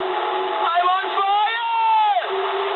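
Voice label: English on fire mood high; speech; War Thunder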